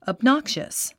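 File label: "obnoxious", English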